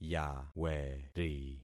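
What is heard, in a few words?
"yaweri", concatenated syllables; Affixing experiment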